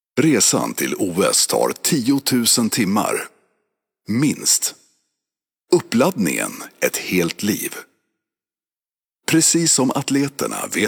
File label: swedish promos